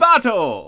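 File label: announcer, Japanese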